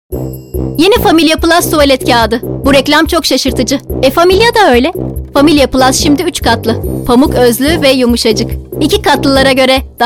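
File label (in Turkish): reklam, Turkish; familia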